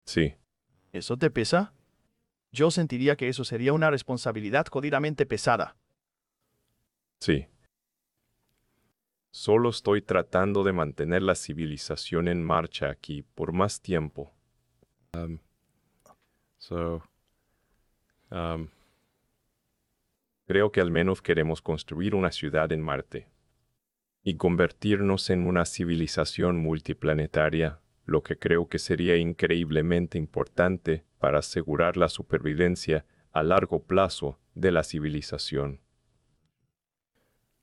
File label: spanish; Subformer